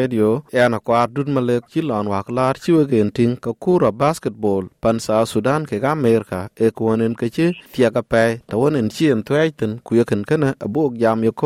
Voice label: dinka; Basketball pɛn South Sudan; Paris Olympic; SBS Dinka

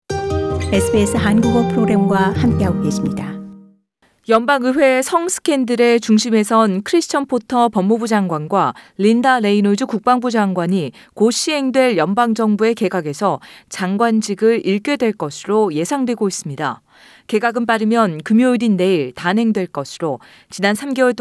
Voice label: korean; SBS Korean; 모리슨 정부, 내일 개각 예상..성 스캔들 관련 장관 2인 내각에서 제외 될 듯…

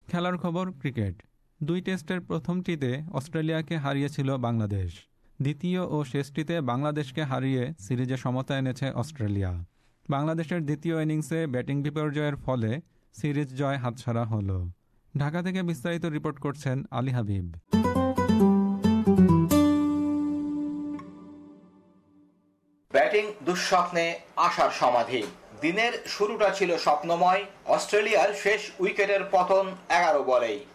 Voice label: bangla; Cricket: Australia draw series against Bangladesh; SBS Bangla

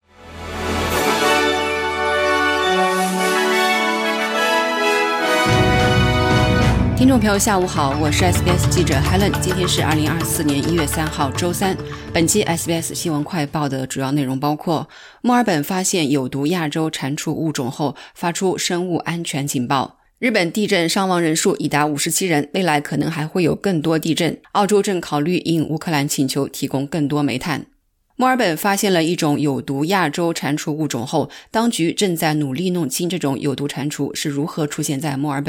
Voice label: mandarin newsflash; 墨尔本发现有毒亚洲蟾蜍物种后发出生物安全警报; SBS Chinese; SBS新闻快报

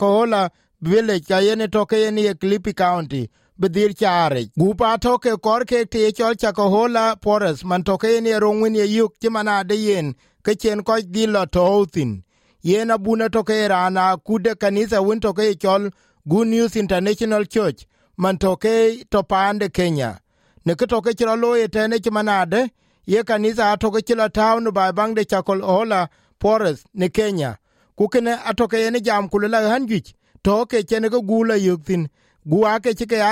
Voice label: Dinka; Death toll climbs to 89 as Kenyan cult members succumb to self-starvation in hopes of reaching heaven; SBS Dinka

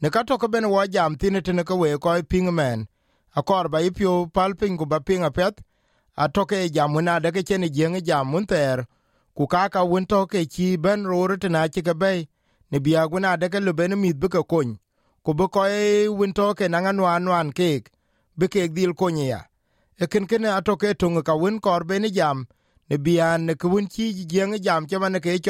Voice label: dinka; Let's talk about sex; SBS Dinka

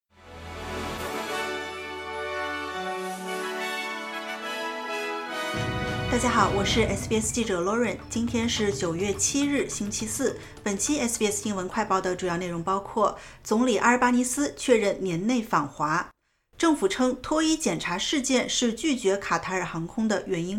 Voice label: mandarin news flash; 总理阿尔巴尼斯确认年内访华; SBS Chinese; SBS新闻快报

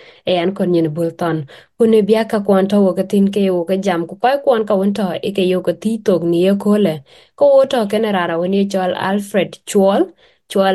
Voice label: dinka; Pilim Swift Street; Swift Street ë pilim SBS bï nyuoth ke pɛi ye nin 28 pɛië ŋuan.

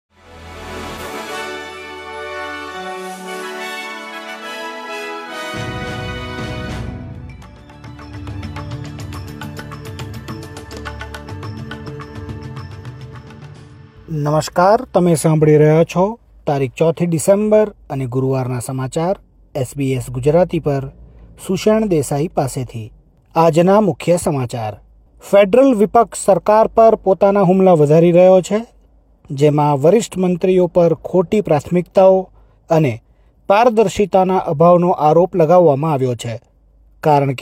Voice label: gujarati newsflash; ૪ ડિસેમ્બર ૨૦૨૫: ઓસ્ટ્રેલિયાની મુખ્ય અપડેટ; SBS Gujarati

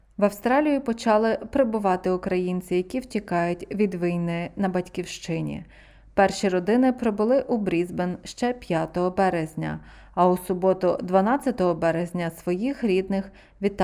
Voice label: ukrainian; В Австралію почали прибувати перші втікачі від війни в Україні; SBS Ukrainian

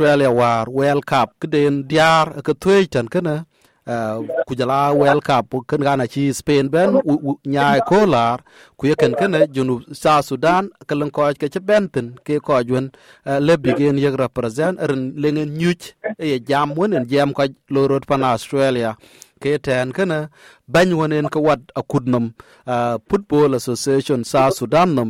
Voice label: dinka; Interview with President of South Sudanese Football Association; SBS Dinka

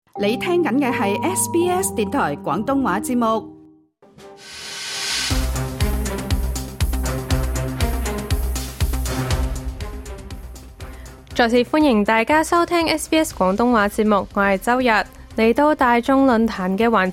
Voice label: cantonese; 【大眾論壇】哪些因素影響民眾在聯邦大選的投票？; 2025聯邦大選塵埃落定，工黨將可籌組大多數政府。; SBS Chinese

